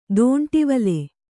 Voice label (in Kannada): "ದಂಡವಳ", Kannada